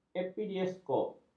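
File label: "epidiaskop", Malay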